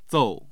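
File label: "zou4", Mandarin Chinese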